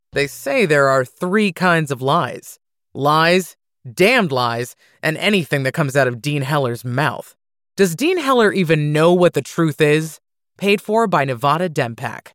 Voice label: biting wry sarcastic negative hard hitting debate firm